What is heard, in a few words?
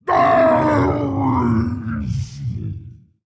Male Dragon Death; Hearthstone; датамайн обновления 5.0